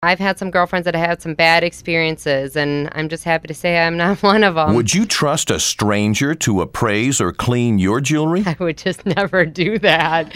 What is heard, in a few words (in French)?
radio pub spot